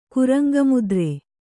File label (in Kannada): "ಕುರಂಗಮುದ್ರೆ", Kannada